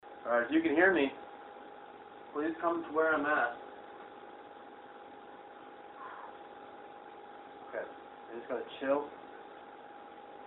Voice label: NO original EVP

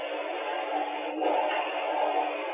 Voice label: EVP says water

